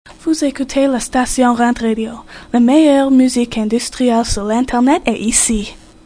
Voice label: Promo Language French; RantRadio; RantMedia; Station Promos-Listener Submitted